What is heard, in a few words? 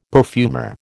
"perfumer", American